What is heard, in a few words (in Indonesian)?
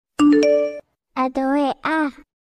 nada notifikasi wa suara anak